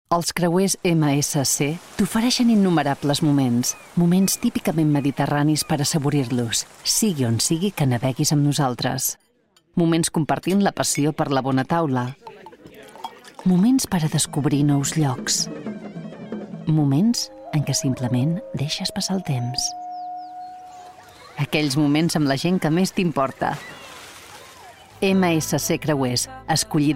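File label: Catalan, Commercial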